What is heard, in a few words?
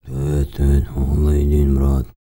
TUVAN TALK; Spectrasonics Vocal Planet CD5; World Voices